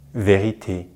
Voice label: "vérité", French

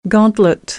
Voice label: "gauntlet", American; English

